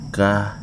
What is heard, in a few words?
"bird", Wahgi; lae unitech